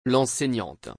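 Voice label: "L'enseignante", French; مدرس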